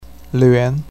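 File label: "lvan2", Chinese pinyin, second tone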